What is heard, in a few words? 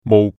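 "mu5", Cantonese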